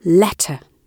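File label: "letter", British English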